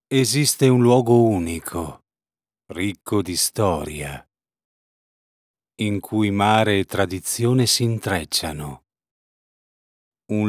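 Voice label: Italian commercial; MARINA DI GINOSA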